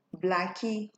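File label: "blackie", Nigerian Pidgin, female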